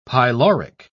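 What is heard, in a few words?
"pyloric", British English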